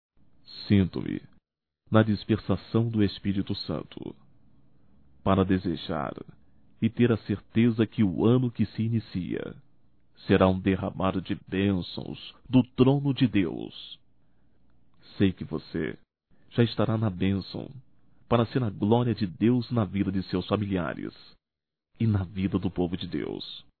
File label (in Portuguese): ANO NOVO NEUTRO (M); Natal e ano novo; Telemensagens 2017